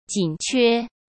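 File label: "紧缺", Mandarin Chinese; hsk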